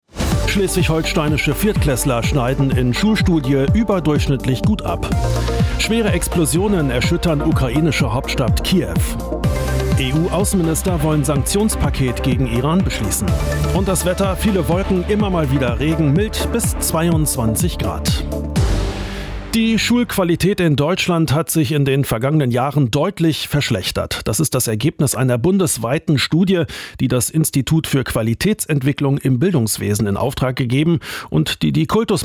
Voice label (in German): Nachrichten; NDR 1 Welle Nord